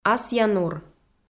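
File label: "асъя нур", Komi-Zyrian, female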